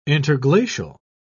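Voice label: "interglacial", English, British English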